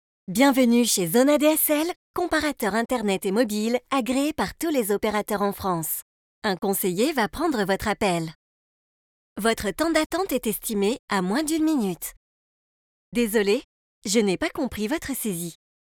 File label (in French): Accueil telephonique, voix off repondeur ivr